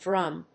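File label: "drm", English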